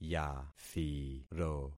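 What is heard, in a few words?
"yathiro", concatenated syllables; Affixing experiment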